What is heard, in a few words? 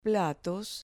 "platos", Spanish